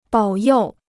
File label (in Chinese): "保佑", Chinese